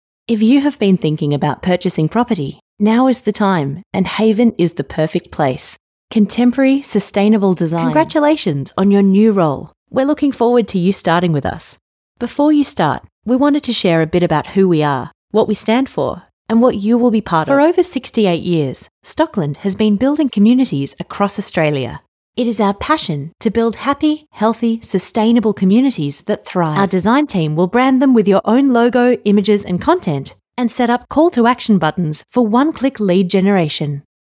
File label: Corporate